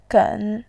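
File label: "gen", Mandarin Chinese, third tone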